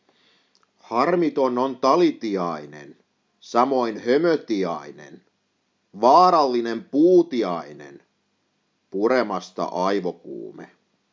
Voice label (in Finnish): puutiainen, poetry